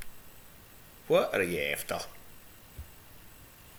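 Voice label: "efter", Scottish slang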